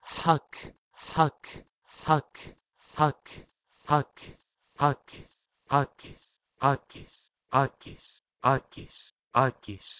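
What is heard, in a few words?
Proto-Indo-European h2ak to Ancient Greek akis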